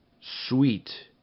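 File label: "sweet", English; RhymeTest; beet not rhyming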